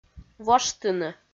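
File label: "воштыны", Komi, female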